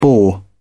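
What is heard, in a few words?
"bore", British English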